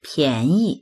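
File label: "pian2yi", Mandarin Chinese; 便宜; 安い（値段が）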